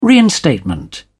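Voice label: "reinstatement", British English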